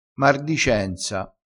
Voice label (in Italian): "mardicenza", crespinese, vocabolario dialettale